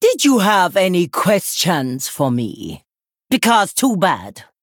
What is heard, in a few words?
Female Troll Half Health; Madame Lazul